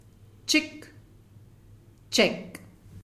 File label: "Chick" "check"